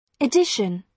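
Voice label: "edition", British English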